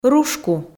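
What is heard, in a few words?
"рушку", Komi, female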